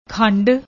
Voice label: "khand", Punjabi